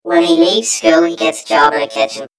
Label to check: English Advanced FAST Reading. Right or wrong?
Wrong